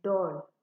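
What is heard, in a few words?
dull, Naija, female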